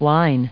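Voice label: "whine", English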